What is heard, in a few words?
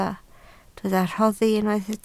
"rhoddi", Welsh